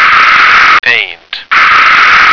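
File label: "paint", American English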